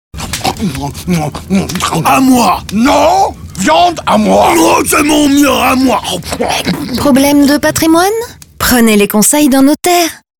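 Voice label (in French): spot, spots radio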